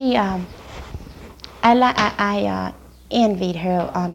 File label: Conversation with 32 year old black female Detroit Michigan; american english dialect